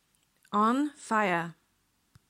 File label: on fire pronunciation slow; Best English Nitra; anglicke frazy